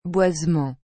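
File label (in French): "boisement" French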